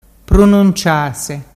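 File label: "prununciase", Ligurian dialect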